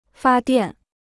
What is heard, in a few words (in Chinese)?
"发电", Chinese